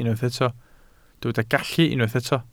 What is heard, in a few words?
"gallu", Welsh